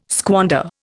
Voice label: "squander", Indian

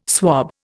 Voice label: "swob", Indian

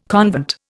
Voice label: "convent", Indian

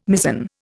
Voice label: "mizen", Indian

